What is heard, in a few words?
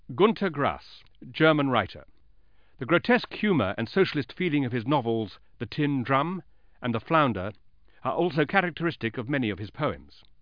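"grass", English; 20th Century Newsmakers; Scarlet Software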